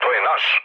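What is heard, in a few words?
Serbian player friendly fire mood low; War Thunder; speech srb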